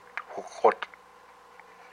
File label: "my knee", hupa